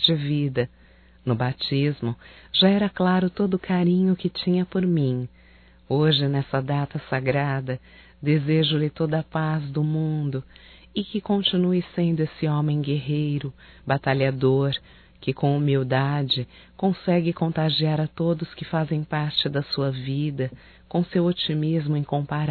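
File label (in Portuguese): NATAL PADRINHO, feminino; Telemensagens 2017; Natal & Ano Novo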